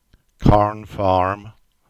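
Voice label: "Carn Farm", English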